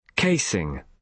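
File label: "casing", British English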